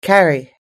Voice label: "carry", us-english